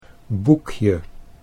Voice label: "boekje", Dutch